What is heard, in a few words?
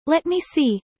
fast; studying abroad